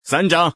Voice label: "sange", Boy; paoyao